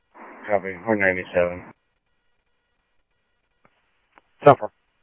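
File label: Mesa Police Department, scanner audio trunk; Mesa Simulcast; MESA PD 2 Centrl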